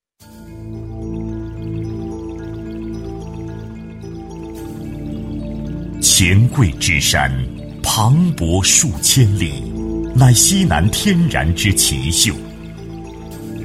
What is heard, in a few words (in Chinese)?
男 专题, 浑厚磁性, 国语男声配音; 酿酒